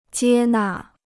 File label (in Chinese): "接纳", Chinese